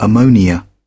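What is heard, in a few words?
"ammonia", British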